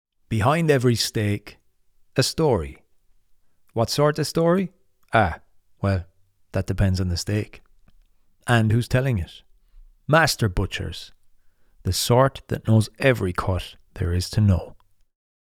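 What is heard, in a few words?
Irish English, male; Butchers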